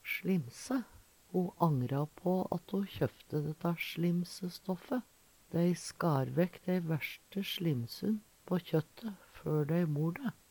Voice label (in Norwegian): slimse, Numedalsmål